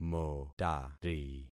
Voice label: "motari", concat syllables; Affixing experiment

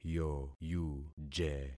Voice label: "yoyuje", concat syllables; Affixing experiment

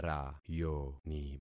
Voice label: "rayoni", concat syllables; Affixing experiment

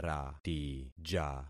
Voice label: "ratija", concat syllables; Affixing experiment

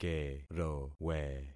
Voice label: "kerowe", concat syllables; Affixing experiment

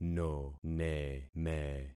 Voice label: "noneme", concat syllables; Affixing experiment

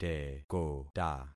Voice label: "tekota", concat syllables; Affixing experiment

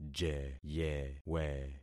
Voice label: "jeyewe", concat syllables; Affixing experiment

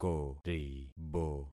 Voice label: "koribo", concat syllables; Affixing experiment

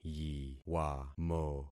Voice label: "yiwamo", concat syllables; Affixing experiment

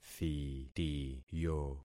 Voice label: "thitiyo", concat syllables; Affixing experiment

